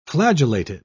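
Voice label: "flagellated", British English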